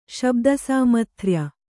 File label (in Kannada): "ಶಬ್ದಸಾಮಥ್ರ್ಯ", Kannada